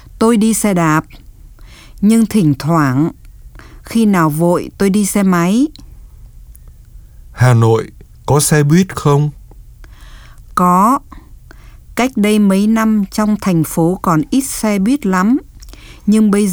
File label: Dialog